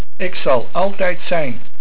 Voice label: Altijd, Dutch

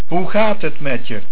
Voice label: "Hoe", Dutch

